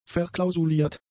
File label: "verklausuliert", German; adjectives